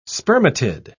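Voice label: "spermatid", British English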